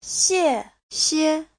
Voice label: "xie4xie5", Chinese

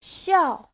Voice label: "xiao4", Chinese

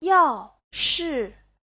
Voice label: "yao4 shi4", Chinese; Giáo trình Hán ngữ

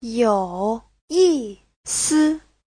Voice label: "you3yi4si5", Chinese; 有意思 : thú vị

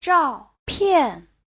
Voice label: "zhao4pian4", chinese

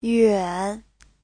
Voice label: "yuan3", Chinese

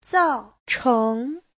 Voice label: "zao4cheng2", chinese; 造成; tạo thành, gây ra

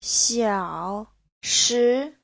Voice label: "xiao3 shi2", Chinese

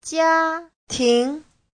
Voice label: "jia1 ting2", chinese; Giáo trình Hán ngữ

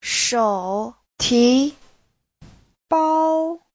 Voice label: "shou3ti2bao1", Chinese; 手提包; túi xách

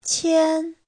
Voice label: "qian1", Chinese

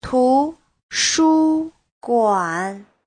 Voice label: "tu2shu1guan3", Chinese; 图书馆; (danh từ) thư viện; Giáo trình chuẩn HSK3

